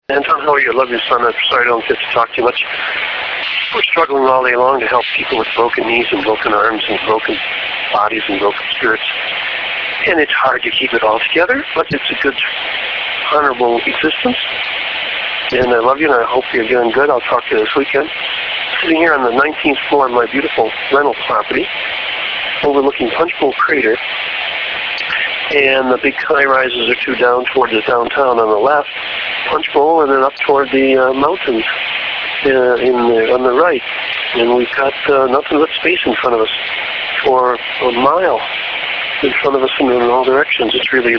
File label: dad on voicemail